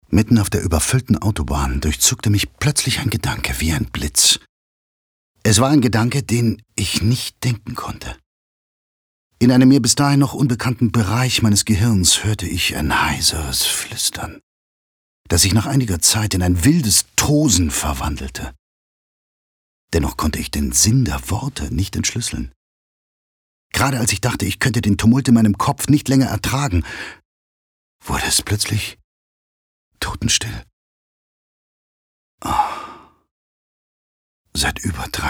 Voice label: Geheimnisvoll; Nachricht

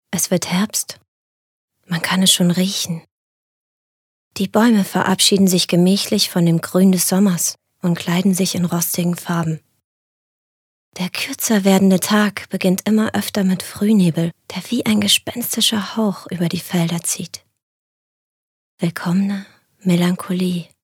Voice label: Schauspiel Sanft

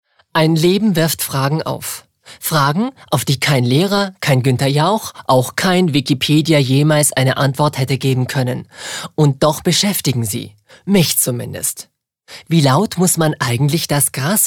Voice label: Schauspiel Neutral

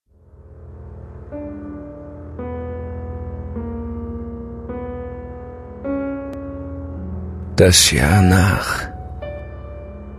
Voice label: Dramatisch; Fremdtake

